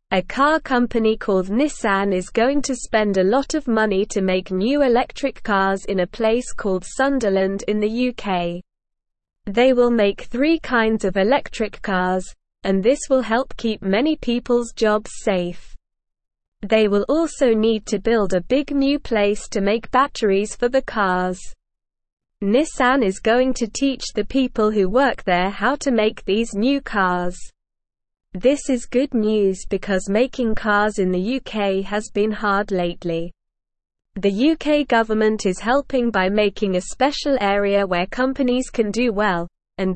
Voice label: English Beginner SLOW Reading; Nissan makes new electric cars in UK

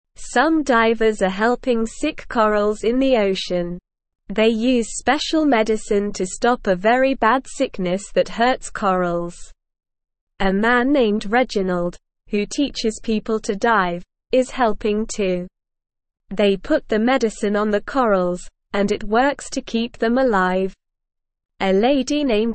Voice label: English Lower Intermediate SLOW Reading; Helping Sick Sea Rocks Special Medicine for Corals; English Newsroom

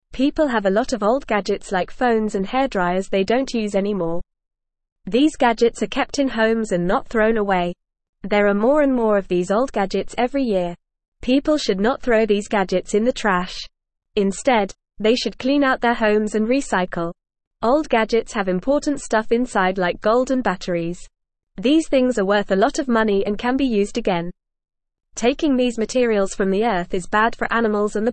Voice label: English FAST Reading; Recycle Old Gadgets to Help the Earth

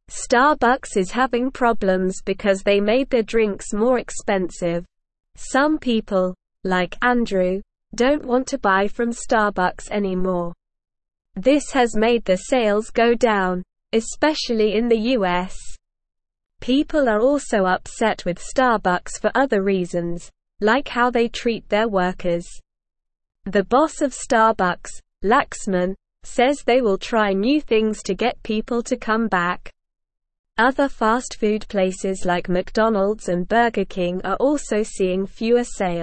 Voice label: English SLOW Reading; Starbucks Faces Sales Drop and Customer Anger

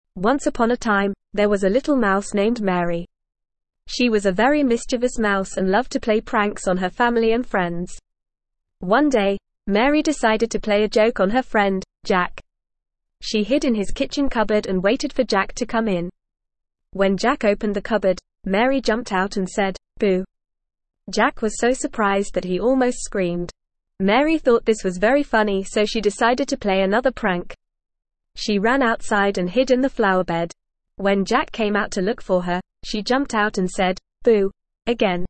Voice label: ESL Short Stories for Kids NORMAL reading; Mary The Mischievous Mouse

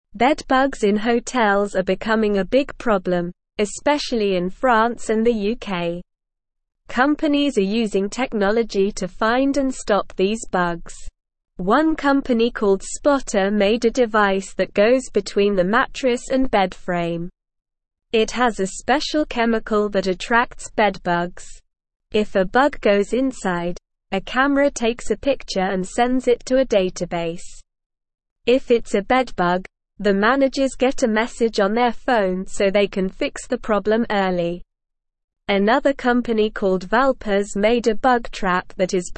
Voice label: English Upper Intermediate SLOW Reading; Hotels Turn to Tech and Dogs to Fight Bedbugs; English Newsroom

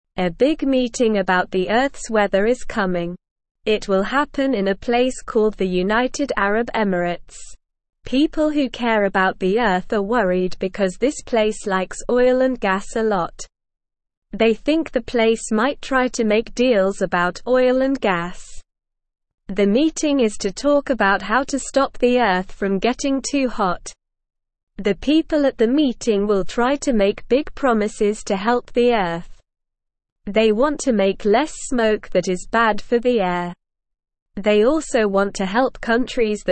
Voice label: English Beginner SLOW Reading; Big Meeting to Help Earths Weather in UAE

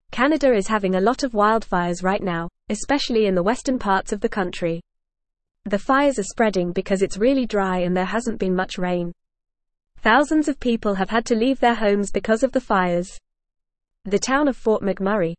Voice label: English FAST Reading; Canadas Increasing Wildfires Urgent Need for Climat

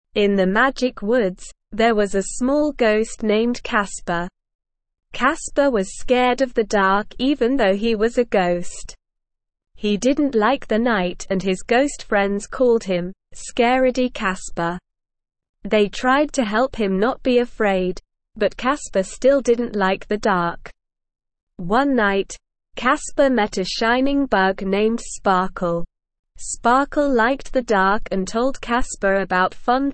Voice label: ESL Short Stories for Kids Beginner SLOW Reading; The Little Ghost Who Was Afraid of the Dark

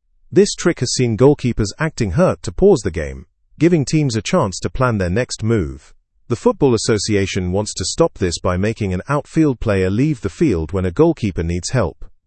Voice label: english through news upper intermediate; english football s new strategy against tactical timeouts

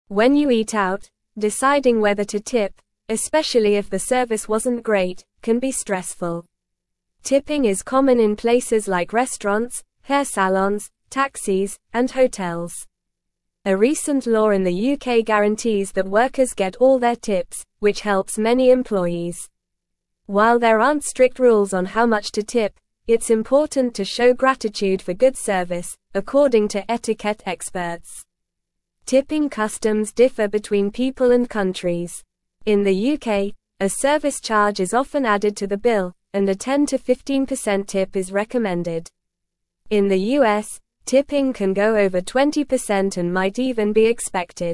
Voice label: English Upper Intermediate NORMAL Reading; Navigating Tipping Etiquette Acknowledging Good Service Graciously

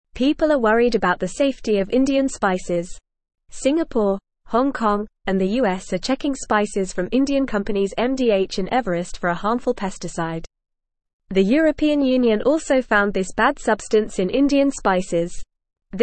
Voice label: English FAST Reading; Worries about Indian spices hurting peoples health

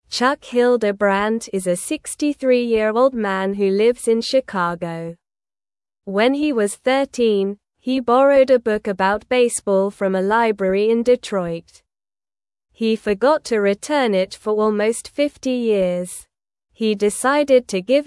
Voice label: English Newsroom Lower Intermediate SLOW Reading; Chuck Returns Library Book After 50 Years

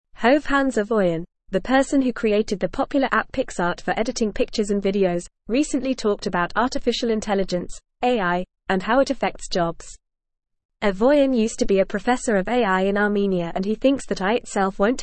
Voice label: English Upper Intermediate FAST Reading; AI A Tool to Enhance Human Capabilities

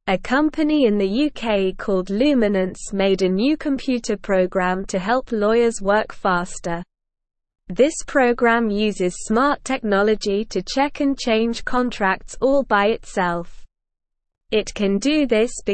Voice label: Lower Intermediate SLOW Reading, English; Smart Tool Helps Make Laws Better and Faster; English Newsroom

